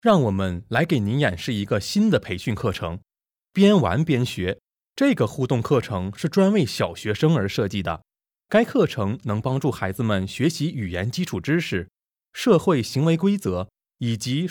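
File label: Chinese Presentation; GoLocalise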